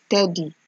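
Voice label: "teddy", Nigerian Pidgin, female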